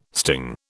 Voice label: "sting", British

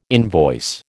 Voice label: "invoice", American

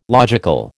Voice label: "logical", American

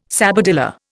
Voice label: "sabadilla", Indian

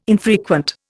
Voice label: "infrequent", Indian

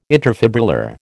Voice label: "interfibrillar", American

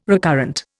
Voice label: "recurrent", Indian

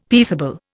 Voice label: "peaceable", Indian; Dictionary

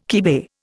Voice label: "kibe", Indian

